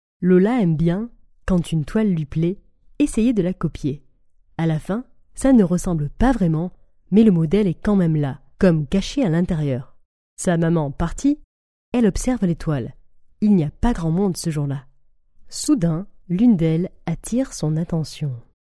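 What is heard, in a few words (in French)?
French Female sample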